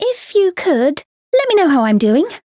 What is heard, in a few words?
variable chant; myungwha